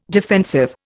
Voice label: "defensive", Indian

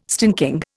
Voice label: "stinking", Indian

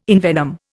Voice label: "envenom", Indian

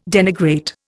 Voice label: "denigrate", Indian

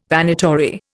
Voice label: "Vanitory", Indian

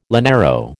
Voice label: "llanero", American

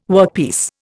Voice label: "workpiece", Indian

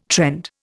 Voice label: "trend", Indian

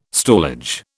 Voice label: "stallage", British

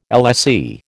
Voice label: "LSE", American

